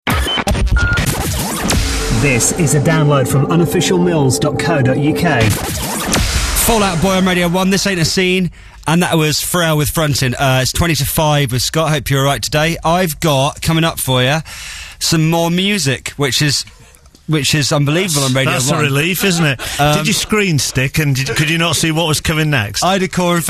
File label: english accents; American accents